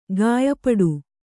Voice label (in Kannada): "ಕಯಿಪಾಡಿ", Kannada